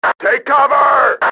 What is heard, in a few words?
"take cover", radio, male; XBOX